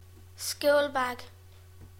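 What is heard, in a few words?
"school bag", English; classroom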